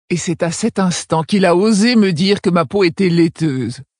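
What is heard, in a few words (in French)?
Female Blague, voix françaises; Tauren Haut Roc; Peau Laiteuse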